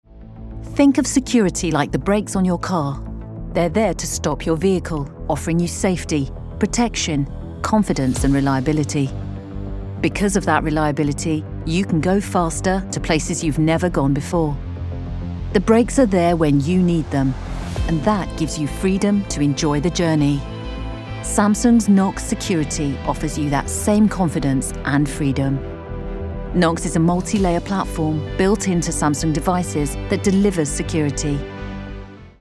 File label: Corporate